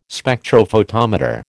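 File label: "Spectrophotometer", American English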